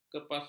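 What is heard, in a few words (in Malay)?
"kepalsuan", Malay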